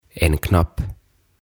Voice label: "En Knapp" "Nappi"